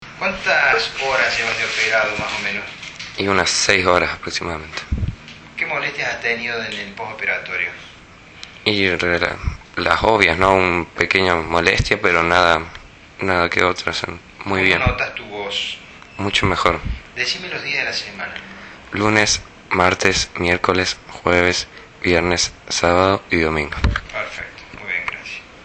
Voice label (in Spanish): 6 HS POST OP, Sonido post operatorio de 6 hs, Hombre. 23 años; Score de severidad de Derkay 14; HPV tipo 6 (seis)